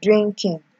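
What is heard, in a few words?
"drinking", Nigerian Pidgin, female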